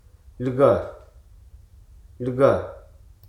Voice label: "january", Hruso

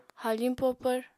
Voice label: "haafiñ popvr", Apatani

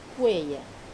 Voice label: "peya", quiet, Wamesa

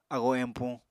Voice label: "agoo empuñ", Apatani

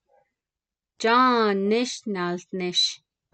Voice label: Is John working, Navajo

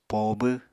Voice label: "poobw", Apatani

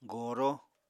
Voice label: "goro", Apatani

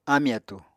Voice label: "áamì atúu", Apatani

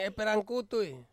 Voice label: "perang kutui", to cut off, Ansus

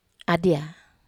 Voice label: "adia", wamesa

